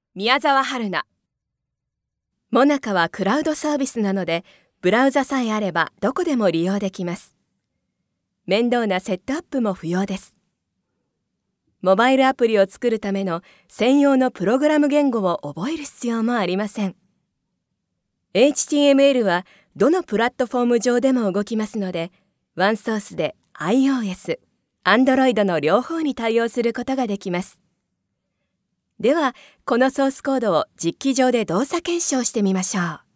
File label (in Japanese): クラウドサービスナレーション